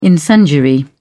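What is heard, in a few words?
"incendiary", British English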